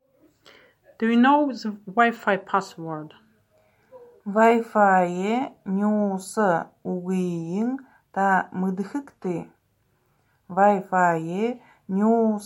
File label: Do you know the Wi-Fi password, buryat